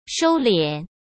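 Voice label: "收敛", Mandarin Chinese; hsk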